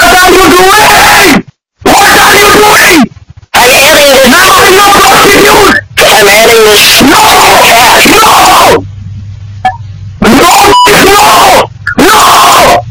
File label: scammer earrape what are you doing